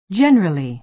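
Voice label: "generally", American English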